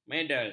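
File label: "medal", Malay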